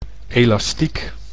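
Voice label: "elastiek", Dutch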